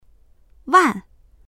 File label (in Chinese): "wan4", voices; 万; 数